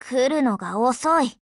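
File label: Japanese, Voice; GF Alarm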